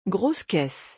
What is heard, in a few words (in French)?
"grosse caisse" French; vocabulary; musical instruments